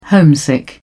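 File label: "homesick", British English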